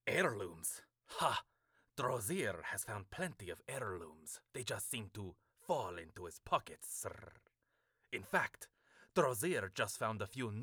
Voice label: male, khajiit voice auditions